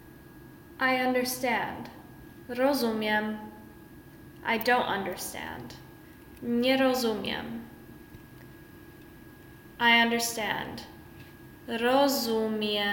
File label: I understand I dont understand, Polish; the talking polish phrasebook